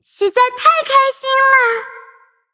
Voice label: levelup zh-hant; cookierun